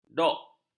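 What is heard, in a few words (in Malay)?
"dok", Malay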